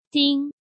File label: "Ding" 2